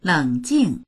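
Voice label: "leng3jing4", Mandarin Chinese; 冷静; 冷静な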